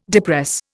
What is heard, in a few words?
"depress", Indian